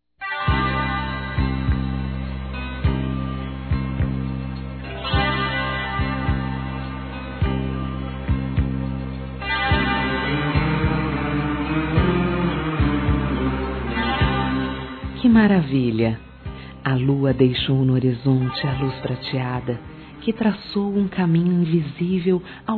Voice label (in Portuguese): Bodas de Prata - Femin, Telemensagens; 25 Anos